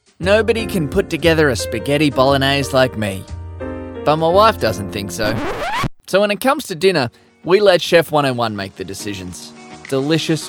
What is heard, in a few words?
husband Australian